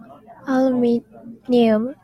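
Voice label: "aluminum", Japanese female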